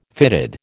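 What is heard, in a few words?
"fitted", American English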